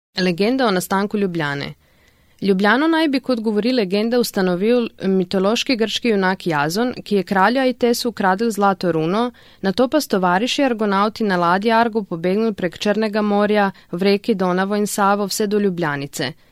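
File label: slovenian female voice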